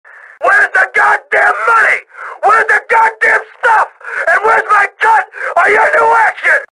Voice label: yell at the phone calls